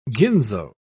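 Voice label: "ginzo", English, British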